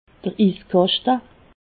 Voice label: "iskaschte", alsace